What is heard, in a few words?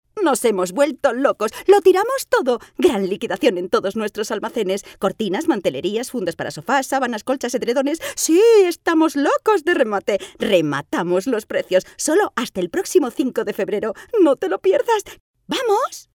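Spanish Spain, female, voice over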